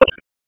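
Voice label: Police Southwest District Tac, radio; Raleigh; Tac 1; p25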